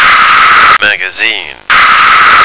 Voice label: "magazine", American